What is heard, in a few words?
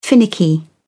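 "finicky", British English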